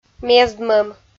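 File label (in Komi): "мездмӧм", Komi-Zyrian, female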